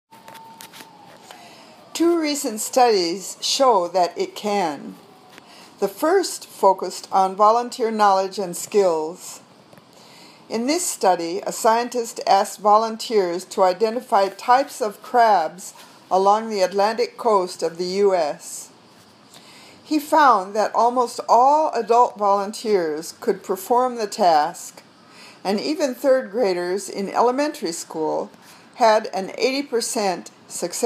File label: Reading Drill